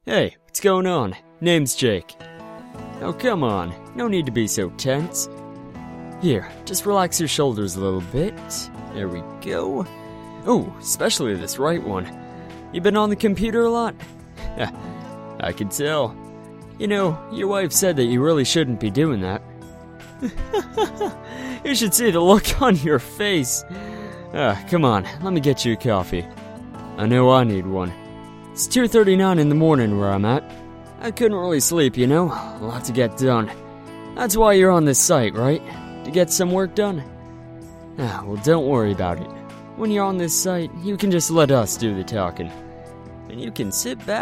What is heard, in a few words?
NATURAL